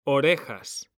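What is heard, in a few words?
"orejas", Spanish, male; mekanta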